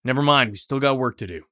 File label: mutter; Escape from Tarkov